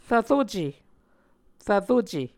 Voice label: "rvruce", Muscogee

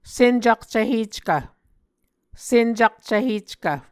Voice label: "sencakcvheckv", Muscogee

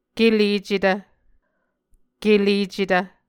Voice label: "kelecetv", Muscogee

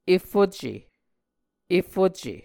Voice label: "efuce", muscogee

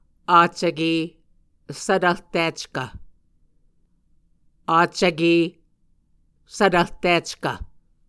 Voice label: "accvke svtvrtickv", Muscogee; Mvskoke Words with Repeated Consonants; Martin, Jack B., and Margaret McKane Mauldin. 2023. A Dictionary of Creek/Muskogee

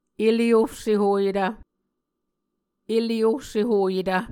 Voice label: "ele ohsehoyetv", muscogee

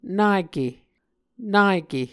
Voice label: "nake", Muscogee

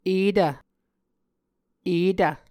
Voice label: "etv", muscogee

